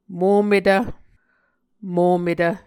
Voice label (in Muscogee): "mometv", Muscogee